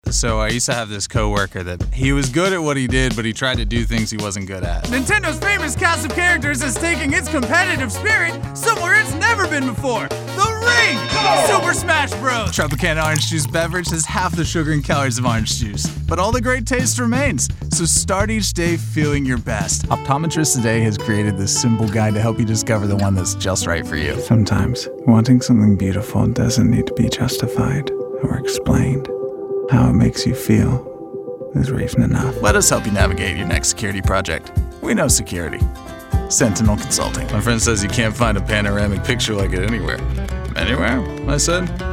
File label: Commercial